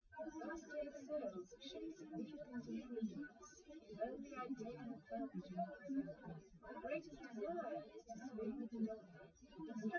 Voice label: test female liverec 250ms 1m src; SiSEC08; SiSEC underdetermined